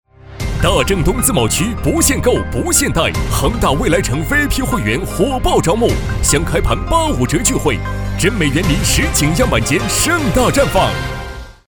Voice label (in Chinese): 广告 大气明亮, 男; 臻美园林